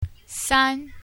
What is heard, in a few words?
3, san1, Chinese